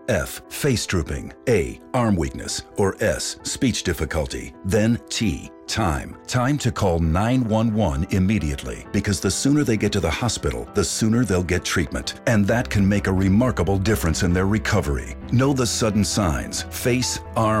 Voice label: PSA Stroke; RadioMD Production

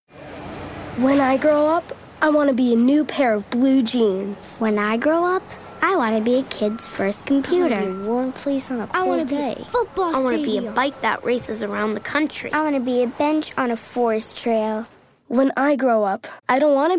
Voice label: PSA Recycle; RadioMD Production

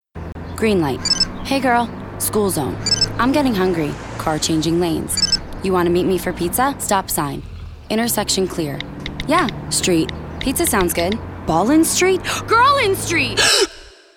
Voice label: PSA Texting and driving; RadioMD Production

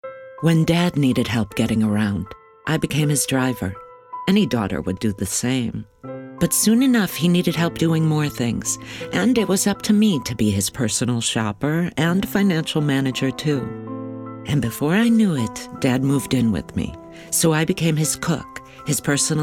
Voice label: PSA Elderly car; RadioMD Production

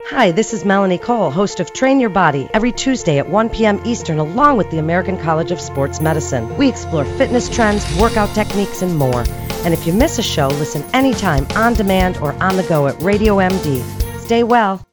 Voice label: promo; Train Your Body

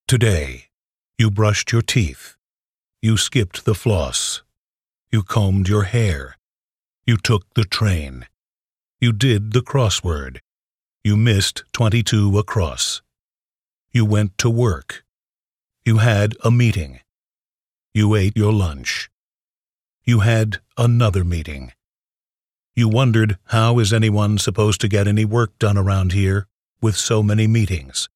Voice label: PSA Tornado awareness; RadioMD Production

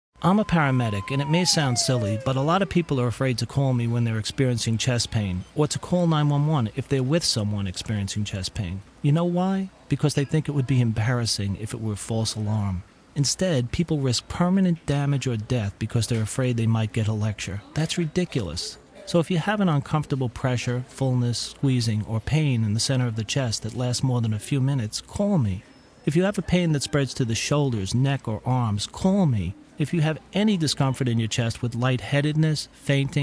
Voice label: PSA paramedics; AHA

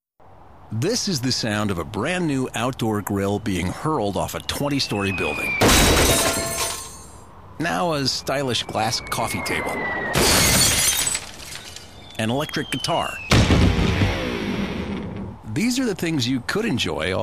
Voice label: PSA Energy Saving; RadioMD Production

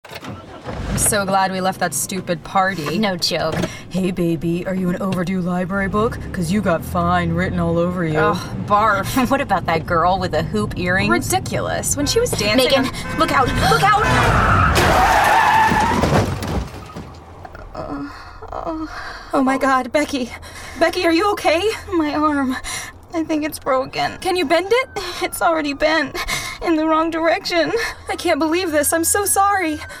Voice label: PSA Buzzed driving; RadioMD Production

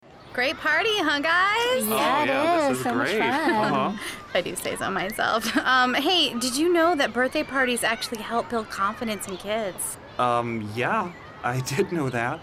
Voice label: PSA Child car seat safety; RadioMD Production

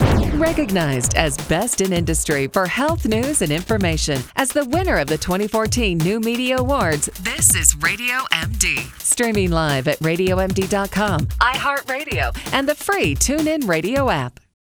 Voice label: Award Promo; RadioMD Production

